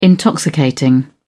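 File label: "intoxicating", British English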